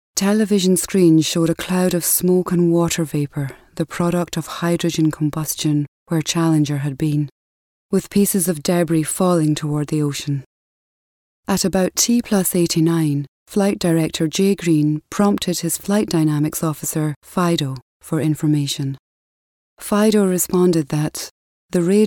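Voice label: English Scottish female, scottish voice over talent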